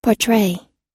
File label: "portray", us; 肖像を描く、(…を)描く